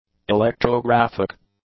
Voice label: "electrographic", English; adjectives